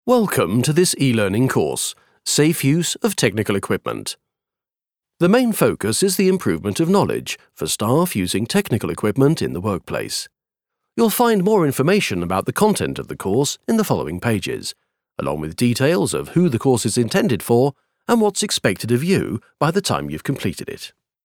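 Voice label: British elearning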